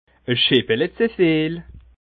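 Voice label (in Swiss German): "scheppele zuviel", Bas Rhin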